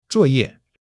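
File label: "zuo4 ye4", Mandarin Chinese; HSK3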